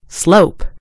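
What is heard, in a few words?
"slope", British English; gcompris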